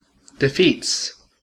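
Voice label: "defeats", US English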